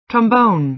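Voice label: "trombone", American English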